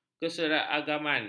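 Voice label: "keseragaman", Malay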